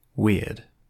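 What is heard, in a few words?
"weird", British English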